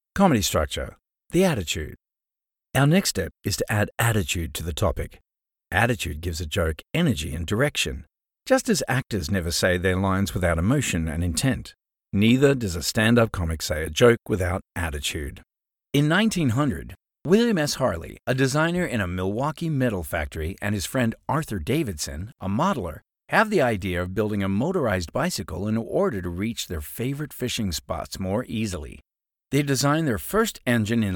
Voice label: english australian audiobooks